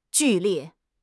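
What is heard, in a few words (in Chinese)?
"剧烈", Chinese; HSK6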